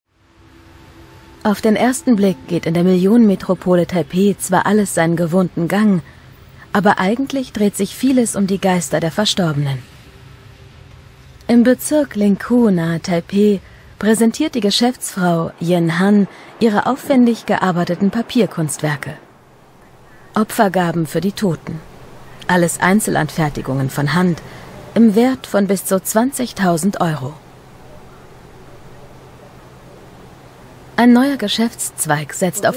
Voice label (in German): Doku OFF; arte; Geisterpost aus Taiwan